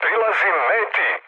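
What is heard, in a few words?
Serbian near waypoint mood med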